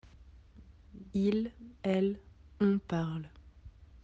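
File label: "parler", French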